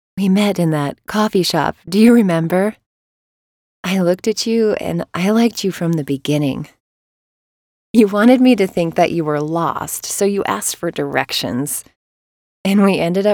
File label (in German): Eng US Dynamisch; Fremdtake